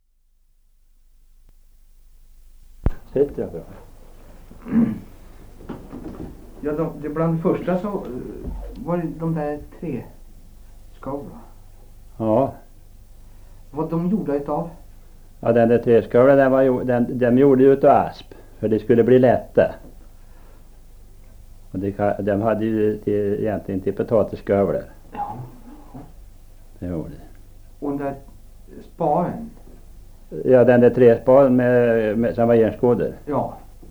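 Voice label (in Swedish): Viby Närke, dialekter; Svenska sagor; Folkminnen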